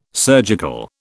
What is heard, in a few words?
"surgical", British